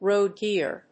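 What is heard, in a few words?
"roe deer", English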